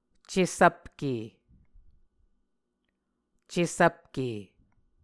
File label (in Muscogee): "cesvpke", Muscogee